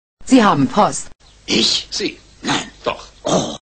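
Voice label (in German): "Nein Doch Ohhh", German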